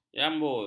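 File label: "jambul", Malay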